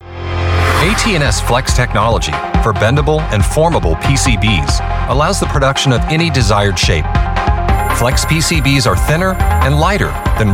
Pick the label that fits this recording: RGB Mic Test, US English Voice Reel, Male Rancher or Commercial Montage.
US English Voice Reel